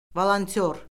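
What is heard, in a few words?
"volontyor", Azerbaijani; Orfoqrafiya Lüğəti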